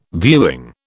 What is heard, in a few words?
"viewing", British